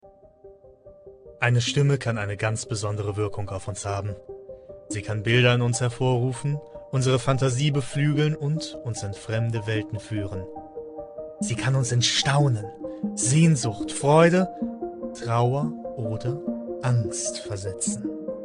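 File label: German, male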